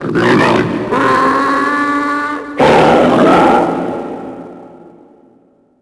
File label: tusken echo; Star Wars Obi-Wan; XBOX